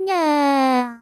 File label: sad; miku